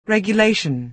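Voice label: "regulation", American English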